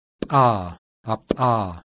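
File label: voiceless bilabial ejective; IPA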